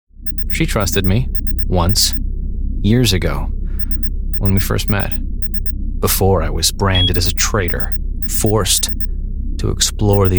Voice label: English Canadian video games